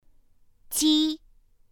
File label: "j", pinyin; pinyin2; pinyinji